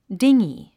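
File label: "dinghy", English; 発音 díŋgi ディンギー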